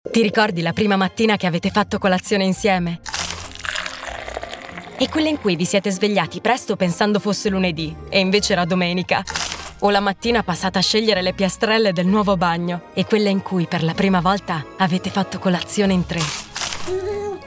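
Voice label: Italian, female, commercial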